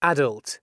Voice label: "Adult", announcements male